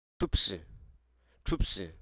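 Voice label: Hruso Aka; butterfly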